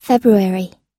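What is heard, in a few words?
"February" English, us; February – Fevereiro; Datas em Inglês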